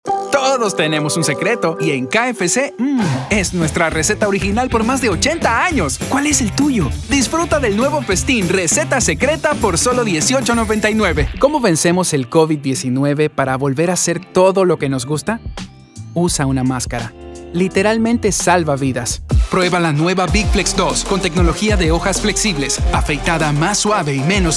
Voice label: spanish latin american commercial

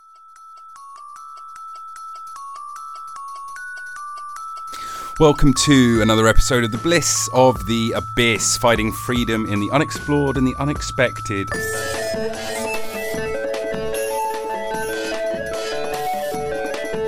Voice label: english british podcasts

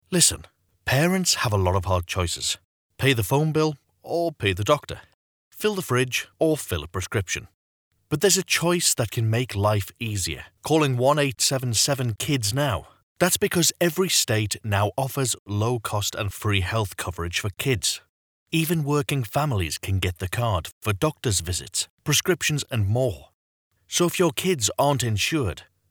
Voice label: english british others

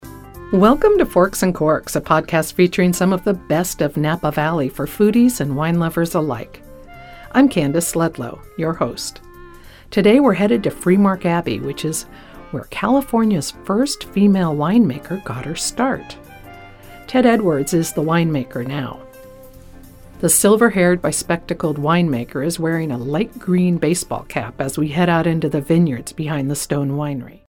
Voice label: english american podcasts

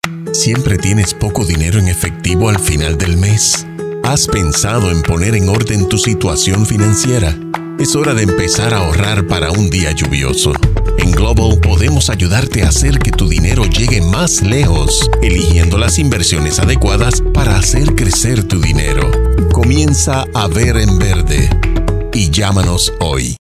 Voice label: spanish puerto rican others

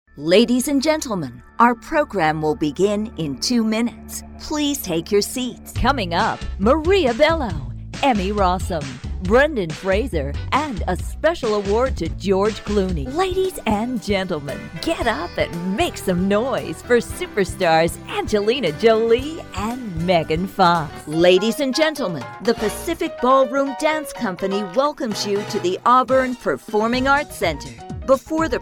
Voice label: english american announcements

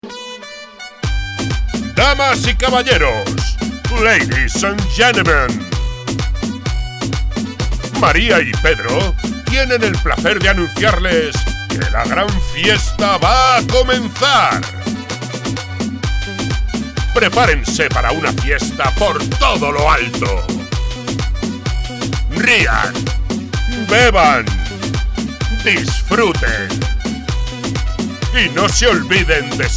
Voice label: spanish others; voice actor

